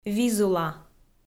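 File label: "визула", Komi, female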